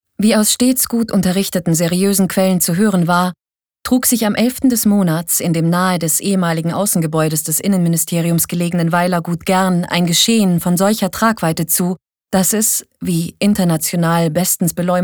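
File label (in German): commentary, Trocken, Neutral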